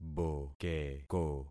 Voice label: "bokeko", concat syllables; Affixing experiment

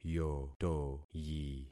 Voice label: "yotoyi", concat syllables; Affixing experiment

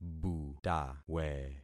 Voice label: "butawe", concat syllables; Affixing experiment

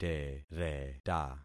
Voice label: "tereta", concat syllables; Affixing experiment

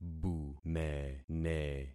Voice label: "bumene", concat syllables; Affixing experiment

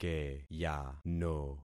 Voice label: "keyano", concat syllables; Affixing experiment

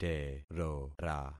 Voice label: "terora", concat syllables; Affixing experiment

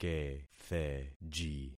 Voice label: "ketheji", concat syllables; Affixing experiment

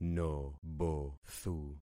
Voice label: "nobothu", concat syllables; Affixing experiment

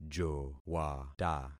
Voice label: "jowata", concat syllables; Affixing experiment

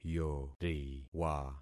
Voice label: "yoriwa", concat syllables; Affixing experiment

